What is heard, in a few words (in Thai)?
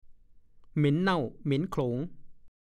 "เหม็นเน่า เหม็นโขลง", ภาษาโคราช; กลิ่นเหม็นอย่างเนื้อเน่าค้างหลายวัน